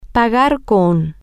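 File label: Spanish, to pay with, female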